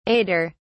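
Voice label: "aider", British English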